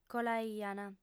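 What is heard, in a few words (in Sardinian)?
"colaiant", female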